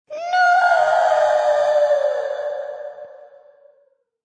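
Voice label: Ghost Female No, A ghostly female voice saying "Noo"; Devil Voices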